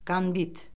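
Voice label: "qambit", Azerbaijani; Orfoqrafiya Lüğəti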